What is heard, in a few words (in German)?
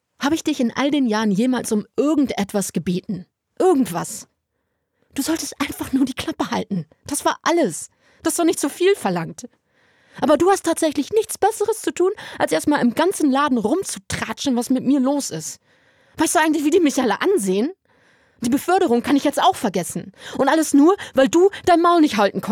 Schauspiel